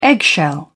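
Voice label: "eggshell", British English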